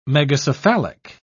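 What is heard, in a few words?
"megacephalic", English, British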